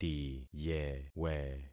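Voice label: "tiyewe", concatenated syllables; Affixing experiment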